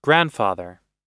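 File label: "grandfather", American English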